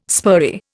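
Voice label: "spurry", Indian English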